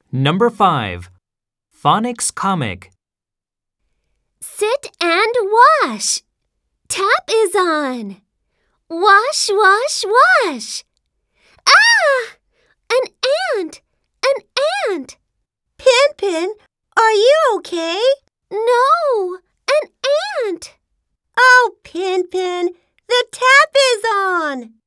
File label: phonics comic, english language booster